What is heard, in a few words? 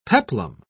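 "peplum", British English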